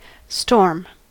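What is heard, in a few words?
"storm", American English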